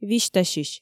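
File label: "висьтасьысь", Komi, female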